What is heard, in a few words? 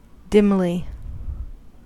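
"dimly", US English; pronunciations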